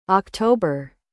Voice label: "October", us; ɑːkˈtoʊ.bər; تلفظ آمریکایی اکتبر

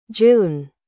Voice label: "June", US; تلفظ آمریکایی ژوئن

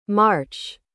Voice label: "March", us; mɑːrtʃ; تلفظ آمریکایی مارس

